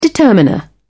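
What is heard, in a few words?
"determiner", British English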